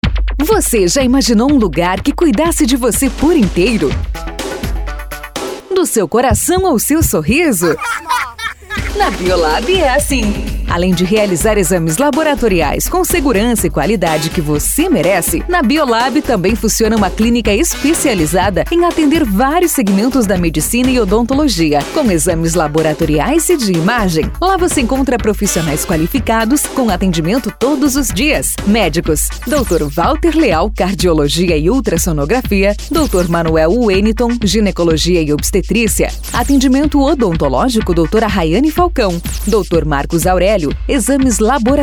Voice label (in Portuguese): SPOT; BIOLAB